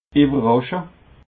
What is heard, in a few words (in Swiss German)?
"ewerrasche", alsace